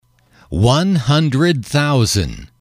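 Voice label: "one hundred thousand", English, male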